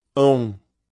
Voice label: Phoneme Male; Commonscript; Lynguistykon